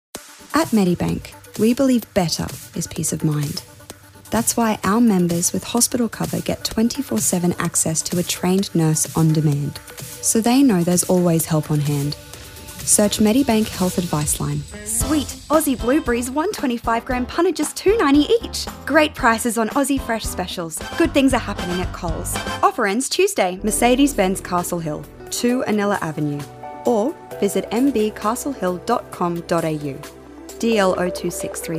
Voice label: Voice Over